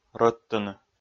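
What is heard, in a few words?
"рӧдтыны", Komi, male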